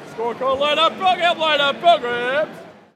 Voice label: Baseball Crowd Vendor; SFX Kit; Crowds